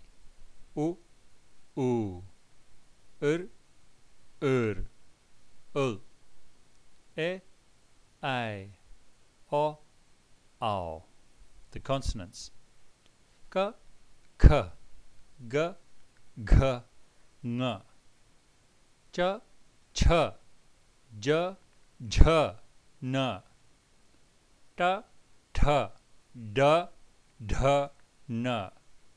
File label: sanskrit alphabet pronunciation